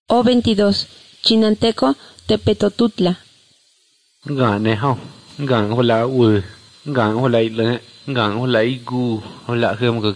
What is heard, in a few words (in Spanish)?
spanish mexico